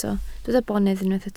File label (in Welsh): "bonedd", Welsh